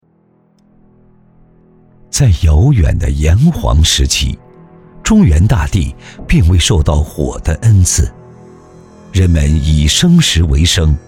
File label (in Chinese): 男 记录片 浑厚传说; 火神毕方